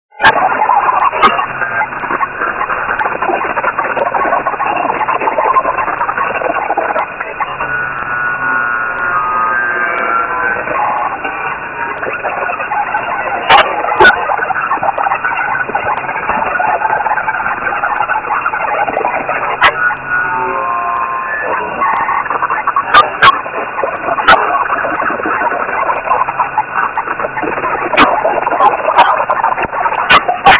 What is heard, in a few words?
lsb voice scrambling; number-stations; noise-stations